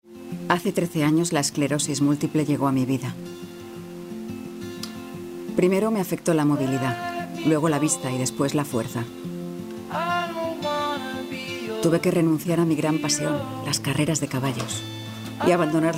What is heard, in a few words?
female spanish acting comercial; ideasonora